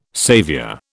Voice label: "saviour", British